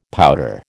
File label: "pouter", American